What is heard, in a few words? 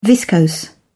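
"viscose", English, British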